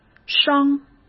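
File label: "伤", Mandarin Chinese; hsk; chinese-flashcards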